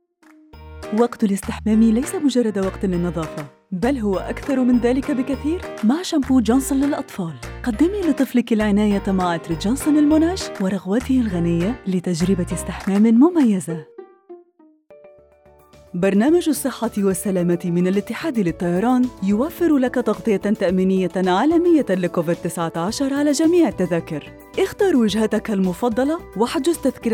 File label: Modern Standard Arabic Commercial